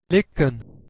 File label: "likkon", Proto Germanic